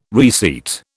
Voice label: "reseat", British

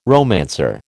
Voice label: "romancer", American

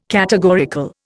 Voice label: "categorical", Indian

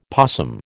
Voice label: "possum", American

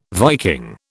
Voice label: "Viking", British

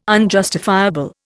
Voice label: "unjustifiable", Indian

